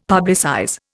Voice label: "publicize", Indian; Dictionary